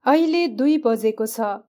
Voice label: narrator; grade3; time